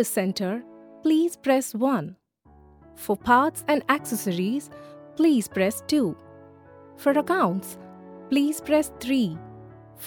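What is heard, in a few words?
IVR English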